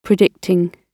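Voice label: "predicting", British English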